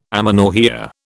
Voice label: "amenorrhoea", British

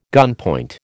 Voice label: "gunpoint", American

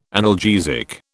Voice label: "analgesic", British

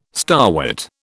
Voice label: "starwort", British

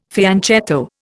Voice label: "fianchetto", Indian

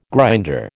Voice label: "grinder", American

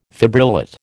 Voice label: "fibrillate", American

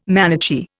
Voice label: "Manichee", Indian

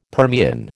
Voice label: "Permian", American

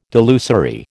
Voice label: "delusory", American

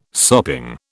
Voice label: "sopping", British

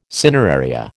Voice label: "cineraria", American

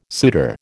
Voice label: "suitor", American

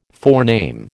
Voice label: "forename", American

